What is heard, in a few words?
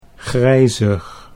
"grijzig", Dutch